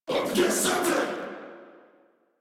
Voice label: Player Spotted, Battle Barks